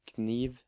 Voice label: "Kniv", Norwegian